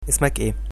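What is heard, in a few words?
name, Egyptian Arabic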